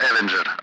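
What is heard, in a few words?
Speech, Russian; Allied Flight; AirDefence; Avenger; DCS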